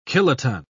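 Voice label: "kiloton", British English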